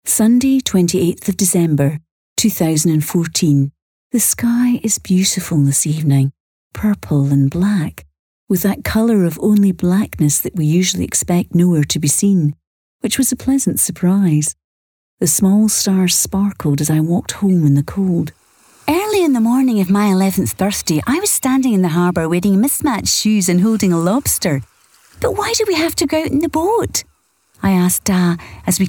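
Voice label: English Scottish, female, audiobook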